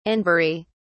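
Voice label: "anbury", English, British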